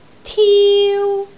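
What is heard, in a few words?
"tiu1", Cantonese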